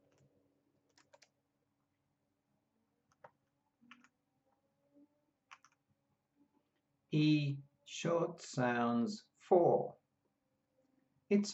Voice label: E short sounds, pronunciation of australian english